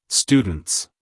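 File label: "students", American English male; everyone-can-use-english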